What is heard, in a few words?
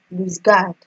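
"lose guard", Nigerian Pidgin, female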